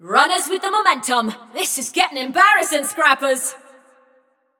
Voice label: Scrap Run Runners Lead, Announcer; Flux